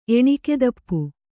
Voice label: "ಎಣಿಕೆದಪ್ಪು", Kannada

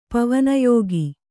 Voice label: "ಪವನಯೋಗ", Kannada

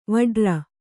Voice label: "ವಟ್ಟಾರ", Kannada

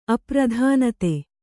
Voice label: "ಅಪ್ರಧಾನತೆ", kannada; apradhānate; noun; want of importance

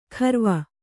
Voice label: "ಖರ್ವ", kannada

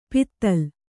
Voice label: "ಪಿತ್ತಲ", Kannada

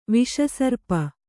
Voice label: "ವಿಷಸರ್ಪ", Kannada

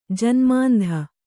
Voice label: "ಜನ್ಮಾಂಧೆ", Kannada

